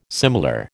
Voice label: "similar", American